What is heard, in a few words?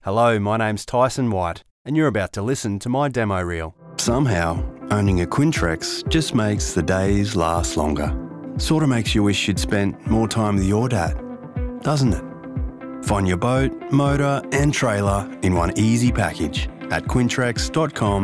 Commercial